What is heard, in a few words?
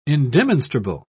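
"indemonstrable", British English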